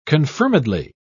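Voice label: "confirmedly", British English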